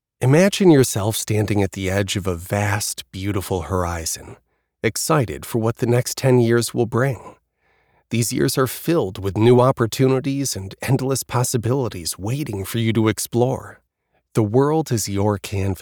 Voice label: Narration